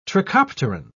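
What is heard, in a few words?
"trichopteran", British English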